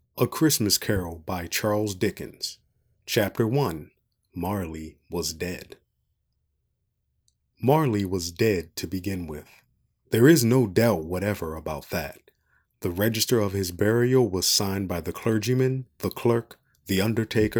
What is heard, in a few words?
book narration